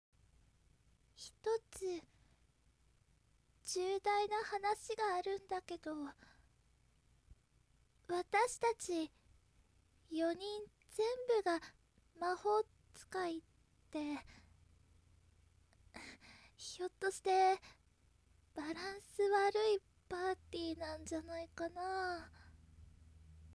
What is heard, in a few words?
calm, voice